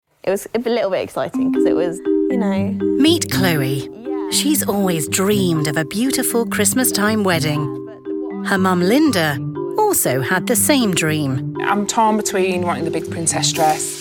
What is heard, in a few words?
narration; Narration Reel, recorded at home, produced by SonicPond